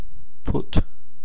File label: "put", pronunciation